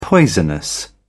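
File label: "poisonous", British English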